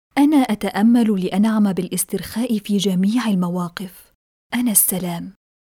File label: Arabic Female; I Am Peace